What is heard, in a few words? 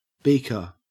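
"beaker", Australian English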